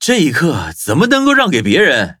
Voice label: levelup zh-hant